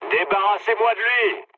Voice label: aircraft damaged mood low, speech fr; War Thunder